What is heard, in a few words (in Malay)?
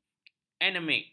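"anemik", Malay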